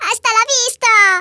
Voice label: "bye bye", Hispanic; Worms Armageddon